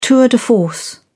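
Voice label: "tour de force", British English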